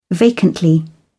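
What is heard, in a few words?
"vacantly", British English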